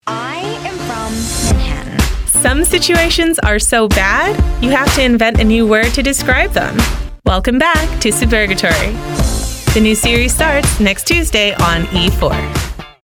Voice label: promo